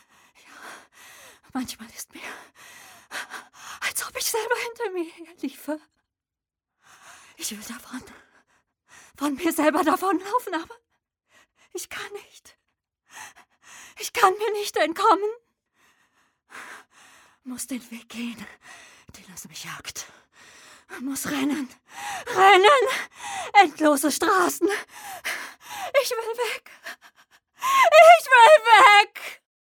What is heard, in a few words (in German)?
angst